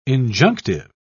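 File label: "injunctive", British English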